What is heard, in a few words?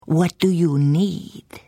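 Hello, Khajiit female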